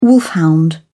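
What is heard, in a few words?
"wolfhound", British English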